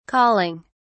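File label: "colling", British English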